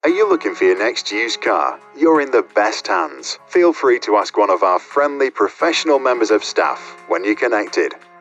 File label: on hold; Otley